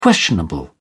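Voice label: "questionable", British English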